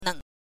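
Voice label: "neng", Mandarin Chinese, neutral tone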